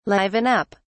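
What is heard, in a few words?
"liven up", British English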